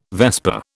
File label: "vesper", British